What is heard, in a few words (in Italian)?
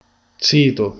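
"fidanzato", Italian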